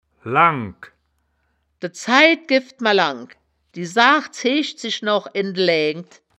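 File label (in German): "langk", Wittlicher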